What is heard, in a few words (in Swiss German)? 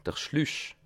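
"schluch", Bas Rhin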